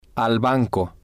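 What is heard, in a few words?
"al banco", spanish, male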